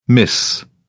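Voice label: "mis", British English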